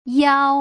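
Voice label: "yao", Putonghua; 零聲母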